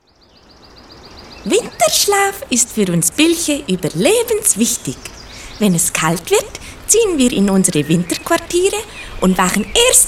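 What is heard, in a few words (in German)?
Hörspiel, DE; Musca; Winterschlaft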